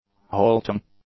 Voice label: "halting", English; adjectives